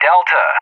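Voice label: "Delta", English; JTAC; Alphabet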